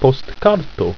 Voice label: "postkar", ido

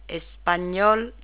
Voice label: "Spanish", Tagalog

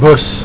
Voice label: "bus", Afrikaans

